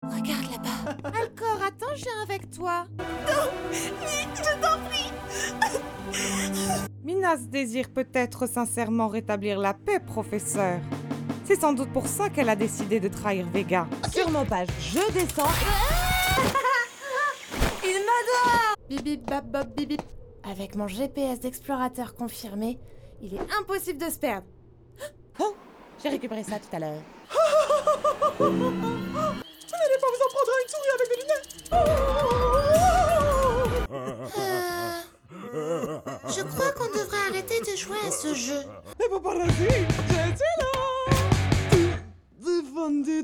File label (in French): Démo Doublage; voice; french